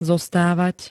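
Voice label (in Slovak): "zostavat", Slovak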